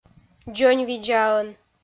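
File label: "дзоньвидзаӧн", Komi, female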